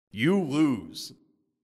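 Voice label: "you lose", announcer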